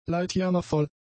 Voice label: "leidjammervoll", German; adjectives

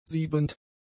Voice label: "siebend", German; adjectives

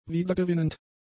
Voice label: "wiedergewinnend", German; adjectives

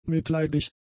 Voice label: "mitleidig", German; adjectives

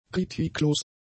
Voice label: "kritiklos", German; adjectives

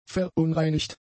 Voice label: "verunreinigt", German; adjectives

